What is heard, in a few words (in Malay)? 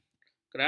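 "kerama", Malay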